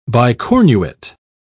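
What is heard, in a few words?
"bicornuate", British English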